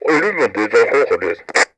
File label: Walkie Talkie Chatter; Communications; 1001 Sound Effects